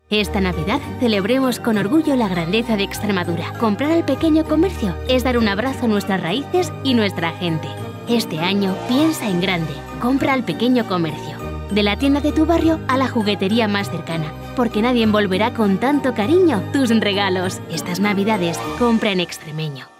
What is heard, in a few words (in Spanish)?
Spot TV con musica